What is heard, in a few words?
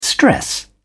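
"stress", English, British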